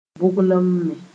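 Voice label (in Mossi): "bʋglme", Mooré; munch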